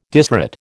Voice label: "disrate", American